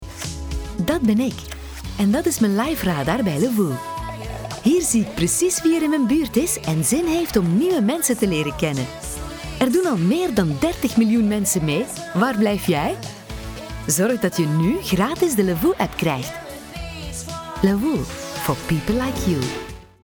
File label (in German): flaemisch werbung; sprecher